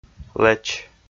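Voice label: "лэч" Komi, male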